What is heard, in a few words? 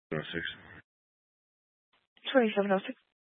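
Sheriff Dispatch, scanner audio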